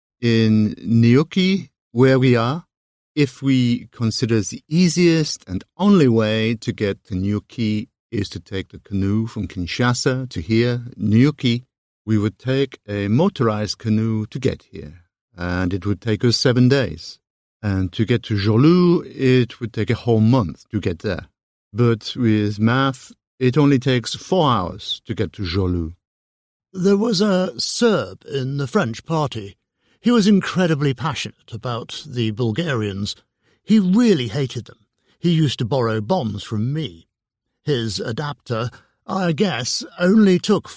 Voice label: voiceover accents; British